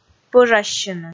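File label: "пӧжассьыны", Komi, female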